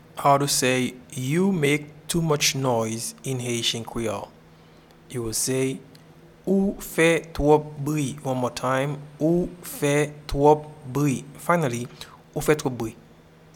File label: You make too much noise in Haitian Creole Ou fe twop bri